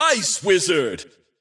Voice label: Ice Wizard normal